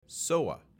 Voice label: "SOA" pronounced